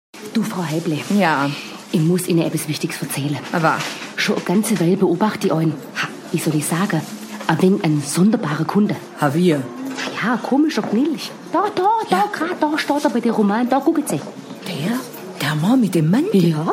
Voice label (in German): schwäbisch; swr; heimkehr